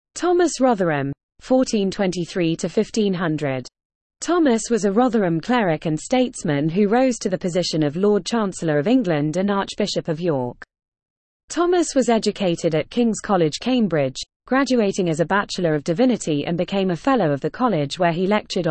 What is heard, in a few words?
Female; Thomas Rotherham